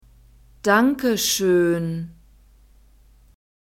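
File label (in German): danke schön, German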